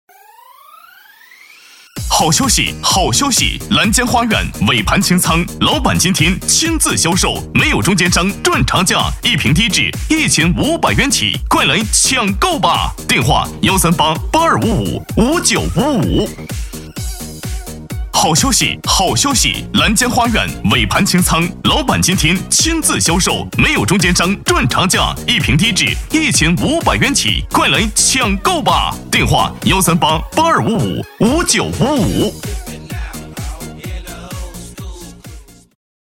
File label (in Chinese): 促销 房产